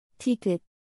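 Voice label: "ㄷ", Korean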